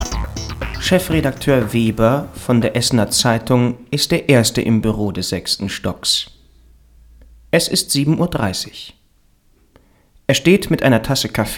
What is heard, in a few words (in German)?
Hoerspiel